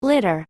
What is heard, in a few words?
"litter", English